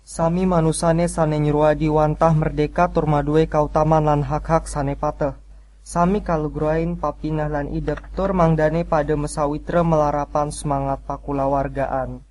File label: balinese